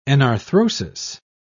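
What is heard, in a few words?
"enarthrosis", British English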